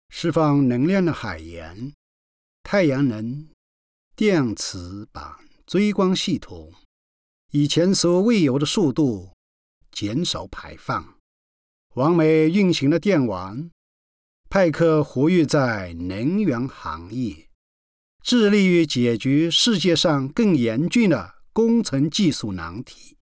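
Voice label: chinese male commercial; voice demos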